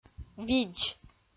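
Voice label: "видз", Komi, female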